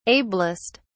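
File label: "ablest", British English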